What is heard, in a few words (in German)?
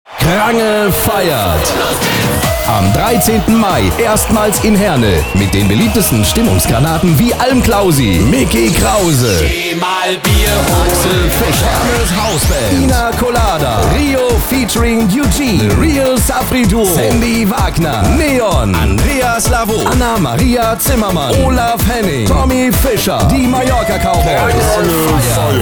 TV Spot; CRANGE FEIERT